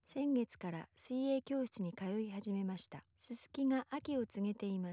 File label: female 8kHz; Speech; from 16kHz